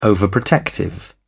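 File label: "overprotective", British English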